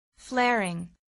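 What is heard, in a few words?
"flaring", English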